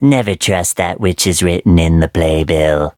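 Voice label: level up, English; cookie run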